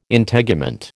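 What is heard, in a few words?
"Integument", American English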